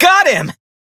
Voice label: Crew Gun Kill Fighter, Male; Dialog; Warframe; Railjack Crew; Perrin Sequence